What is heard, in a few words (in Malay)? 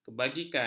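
"kebajikan", Malay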